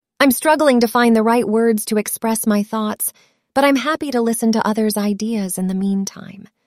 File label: synthesized audio